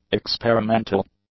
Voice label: "experimental", english; adjectives